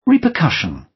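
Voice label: "repercussion" British; Flora and fauna, agriculture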